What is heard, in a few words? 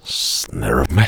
temperance, voiceover reversed